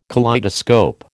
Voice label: "kaleidoscope", American

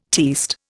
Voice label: "tierced", Indian

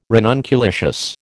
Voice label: "ranunculaceous", American

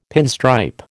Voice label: "pinstripe", American

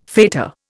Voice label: "fetor", Indian

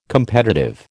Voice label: "competitive", American

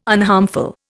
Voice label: "unharmful", Indian

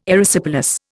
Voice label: "Erysipelas", Indian

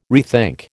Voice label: "rethink", American

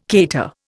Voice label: "cater", Indian

